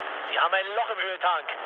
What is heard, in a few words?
damaged oil, mood low, speech ge; War Thunder